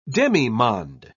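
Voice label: "demimonde", British English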